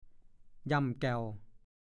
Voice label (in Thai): "หย่ำแก่ว", ภาษาโคราช; ต้องการ ได้ใจ